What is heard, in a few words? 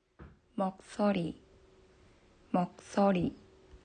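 "声", Japanese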